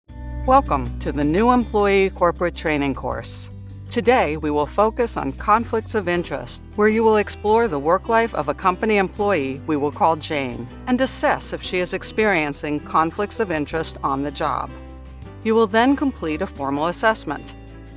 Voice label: english american corporate